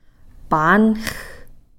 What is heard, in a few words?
"paanx", hunger